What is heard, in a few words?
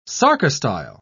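"sarcostyle", British English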